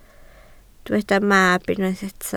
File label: "mab", Welsh